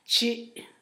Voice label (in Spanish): diez, Zapoteco, Asunción Ocotlan; Números; INALI